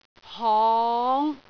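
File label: "hong5", Cantonese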